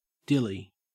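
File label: "dilly", Australian English; pronunciations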